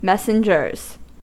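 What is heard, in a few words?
"messengers", American English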